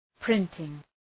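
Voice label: "printing", English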